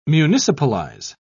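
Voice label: "municipalize", British English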